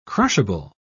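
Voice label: "crushable", British English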